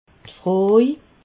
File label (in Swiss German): "froj", alsace